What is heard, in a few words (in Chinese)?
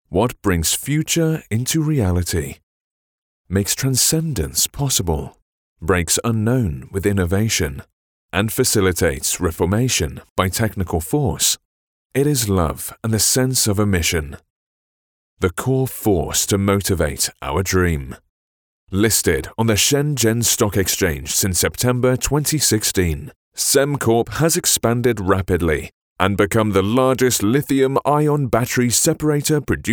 宣传片英文稿 配音稿